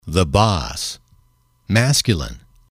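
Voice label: "boss", male, English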